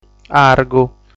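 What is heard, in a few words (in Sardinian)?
"Argu", Sardinian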